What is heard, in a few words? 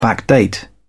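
"backdate", British English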